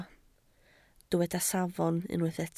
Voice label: "safon", Welsh